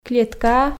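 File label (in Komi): "клеткаа", Komi, female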